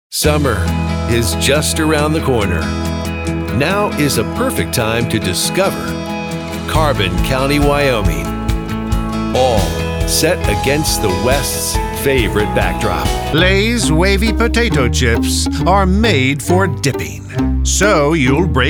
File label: Commercial, male commercials